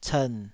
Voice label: "cern3", taiwanese syllables